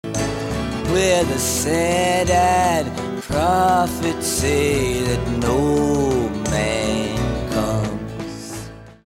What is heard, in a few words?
no popped P